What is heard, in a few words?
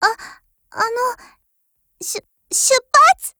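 go attack, Japanese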